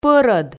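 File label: "пӧрӧд" Komi, female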